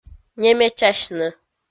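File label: "немечасьны", Komi, female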